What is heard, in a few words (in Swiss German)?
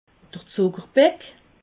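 "zuckerbeck", Alsatian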